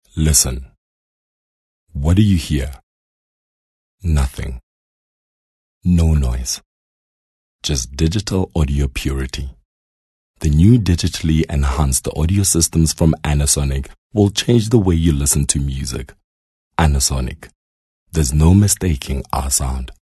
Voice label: English softsell